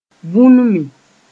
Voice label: "vũnmi", moore; crawl on all fours